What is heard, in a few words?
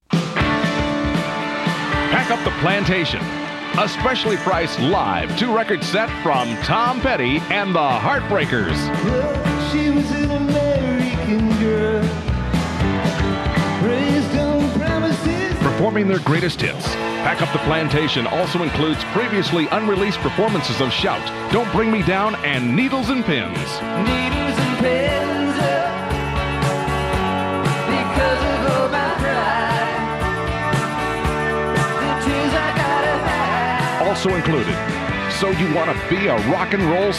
Radio Commercials; 1985